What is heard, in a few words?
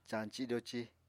"cañci-doci", Apatani